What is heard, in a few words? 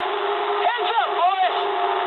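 English near waypoint mood high; War Thunder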